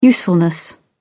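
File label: "usefulness", British English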